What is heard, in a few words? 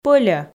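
"пӧльӧ" Komi, female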